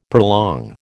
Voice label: "prolong", American